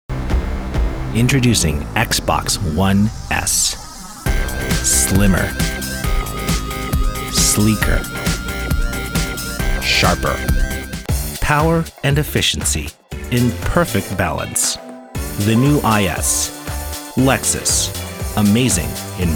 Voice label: Commercial